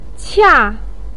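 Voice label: "qia4", Mandarin pinyin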